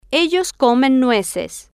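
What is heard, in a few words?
ellos Comen Nueces, Spanish, female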